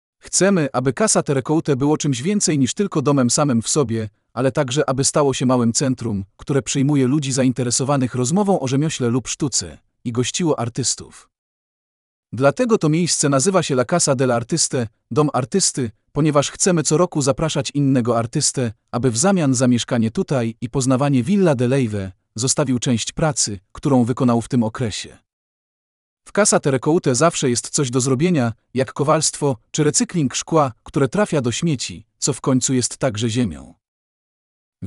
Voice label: Polish